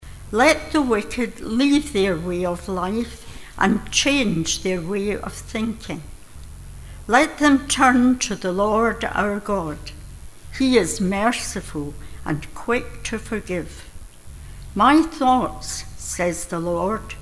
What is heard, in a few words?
read; Isaiah 55: 4-11; St. Mungo's